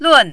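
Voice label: "lun", Mandarin, fourth tone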